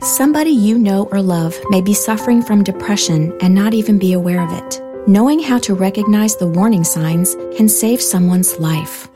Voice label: Radio Ad